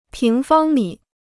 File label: "平方米", Chinese